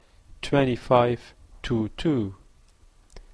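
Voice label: "twenty five to two", Canadian English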